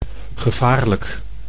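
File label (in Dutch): "gevaarlijk", Dutch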